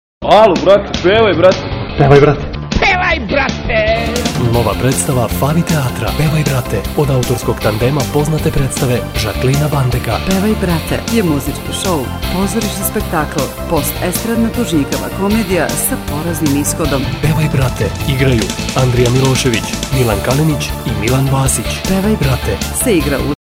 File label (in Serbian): radio spot; gostovanje